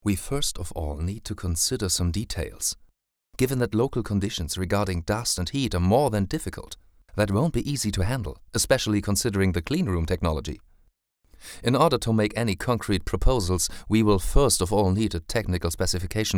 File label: englisch lipsync